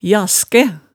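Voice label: "jaskæ", Hemsedal dialect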